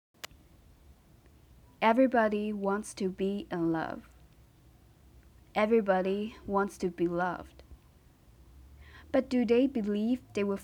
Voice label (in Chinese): 英文朗读